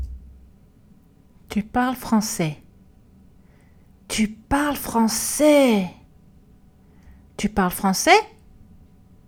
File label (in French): intonation